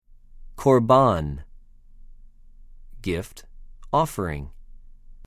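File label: "קָרְבָּן", Hebrew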